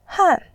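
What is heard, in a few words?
"han", Mandarin Chinese, fourth tone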